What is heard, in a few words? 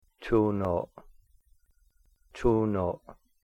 "chuunoo'", behind a tree, Cahto; "oo" in "cool", "ew" in "chew"